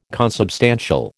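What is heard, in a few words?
"consubstantial", American English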